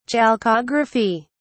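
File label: "chalcography", British English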